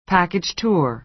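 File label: "package tour", American English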